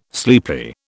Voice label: "sleepy", British